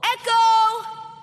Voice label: save ums custard echo